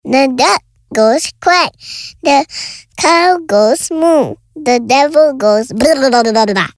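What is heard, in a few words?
English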